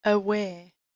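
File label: "h2weh1", Proto-Indo-European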